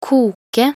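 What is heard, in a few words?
"koke", Norwegian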